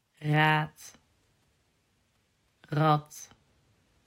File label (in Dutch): "raad" "rad", vergelijkende woorden